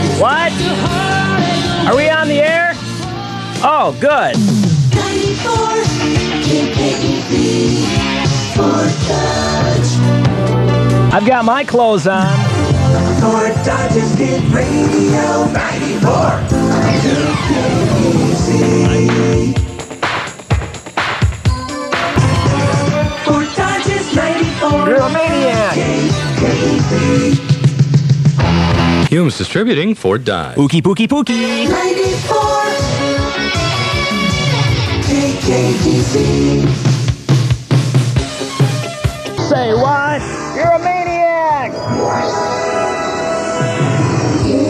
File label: Imaging; KKEZ; Aug Sep 1986